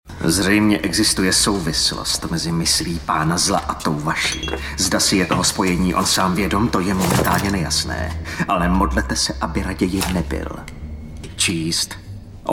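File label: Male Czech drama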